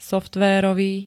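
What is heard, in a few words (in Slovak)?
"softverovy", Slovak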